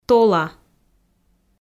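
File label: "тола", Komi, female; audio dict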